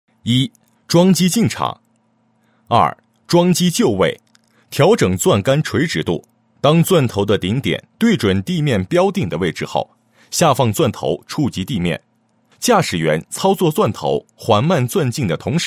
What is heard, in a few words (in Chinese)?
男 解说, 配音; 钻孔桩旋挖钻施工